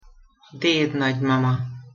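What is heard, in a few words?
"dednagymama", Hungarian pronunciation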